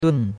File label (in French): "dun4", chinois; Cours de Chinois